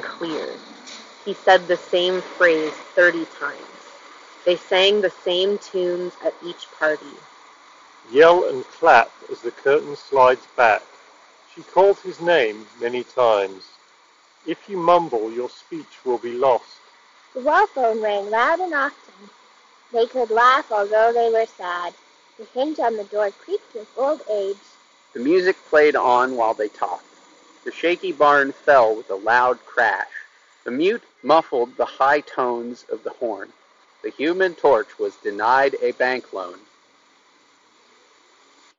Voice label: Sennheiser Accentum Wireless Street microphone sample